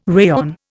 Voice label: "rayon", Indian